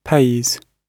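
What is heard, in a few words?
"pays", British English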